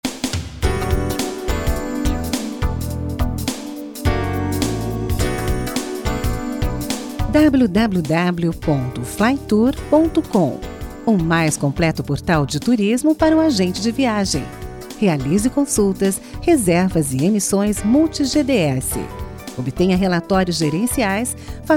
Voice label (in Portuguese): espera fem neutro; flytour